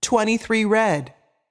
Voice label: voice Over, roulette; american roulette 3